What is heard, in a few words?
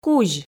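"кузь", Komi, female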